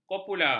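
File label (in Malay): "kopula", Malay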